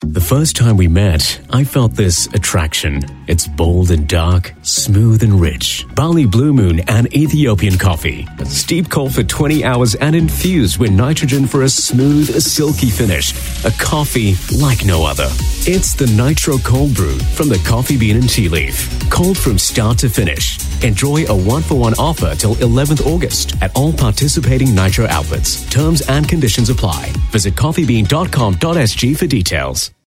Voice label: Asian English; The Coffee Bean And Tea Leaf Intro